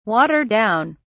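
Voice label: "water down", British English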